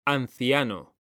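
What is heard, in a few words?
"anciano", Spanish, male; mekanta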